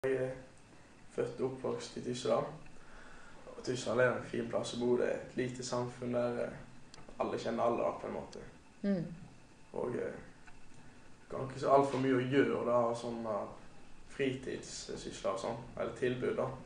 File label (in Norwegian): Tyssedal, dialekt